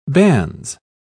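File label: "bans", British English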